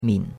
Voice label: "min3", chinois; Cours de Chinois